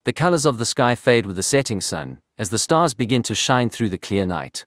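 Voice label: South African English; iOS Sounds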